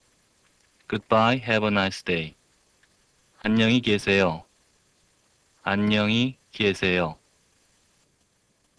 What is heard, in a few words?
Korean Good Bye